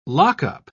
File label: "lockup", pronounce